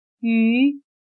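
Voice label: "鱼", Chinese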